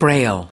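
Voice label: "braille", US English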